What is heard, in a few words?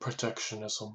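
"protectionism", US English; pronunciations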